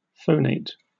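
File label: "phonate", American English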